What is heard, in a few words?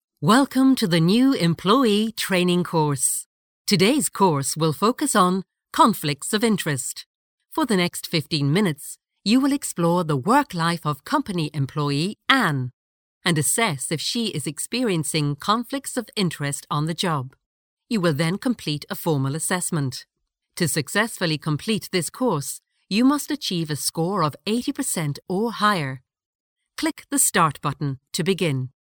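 Irish English, female, voice over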